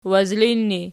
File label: wazlin erg, archi